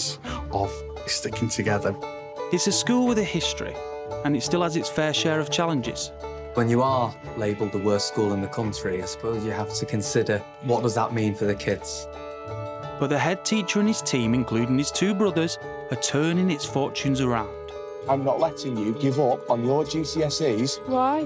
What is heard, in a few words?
conversational straightforward informative; Educating Greater Manchester